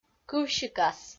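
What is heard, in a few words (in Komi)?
"кывсикас", Komi, female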